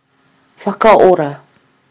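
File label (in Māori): "whakaora", Māori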